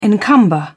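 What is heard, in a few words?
"encumber", British English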